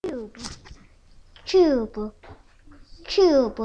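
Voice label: "cube", spoken word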